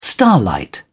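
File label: "starlight", British English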